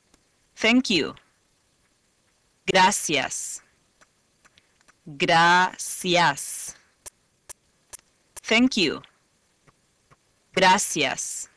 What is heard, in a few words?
Spanish Thanks